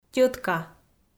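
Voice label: "тьӧтка", Komi, female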